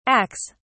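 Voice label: "acs", British English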